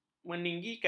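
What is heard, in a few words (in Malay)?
"meninggikan", Malay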